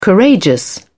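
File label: "courageous", British English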